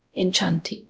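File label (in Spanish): Voice Acting, es, female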